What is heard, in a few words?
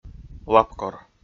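"лапкор", Komi, male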